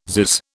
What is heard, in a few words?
"zizz", British